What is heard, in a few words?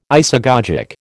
"isagogic", American